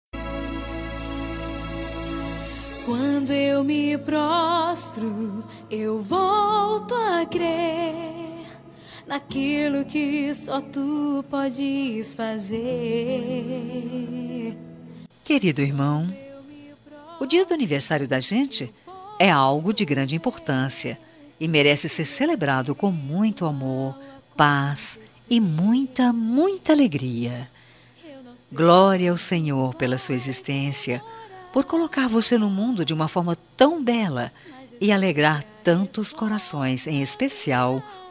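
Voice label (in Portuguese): aniversario evangelico para irmao feminino, telemensagem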